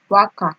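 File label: "waka", Nigerian Pidgin, female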